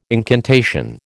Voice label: "incantation", American

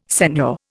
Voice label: "seigneur", Indian

